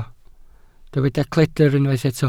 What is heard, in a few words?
"cledr", Welsh